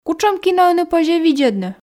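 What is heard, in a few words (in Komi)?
кутшӧм кино ӧні позьӧ видзӧдны, Komi, female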